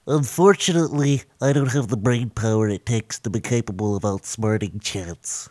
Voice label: Nerd Lose; blackjack